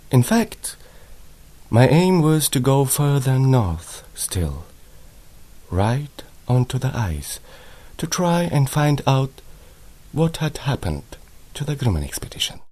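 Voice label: Bulgarian English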